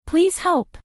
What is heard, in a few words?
help, women